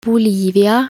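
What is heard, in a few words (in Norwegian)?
"Bolivia", Norwegian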